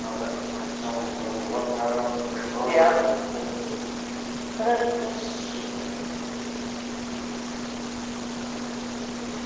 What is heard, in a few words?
Ballroom Unexplained Older Female Inaudible Voice; Amplified